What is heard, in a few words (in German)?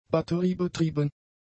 "batteriebetrieben", German; adjectives